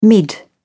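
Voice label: "mid", British English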